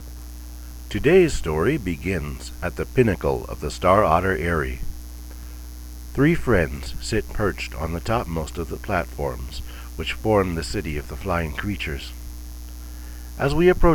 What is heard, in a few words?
narrator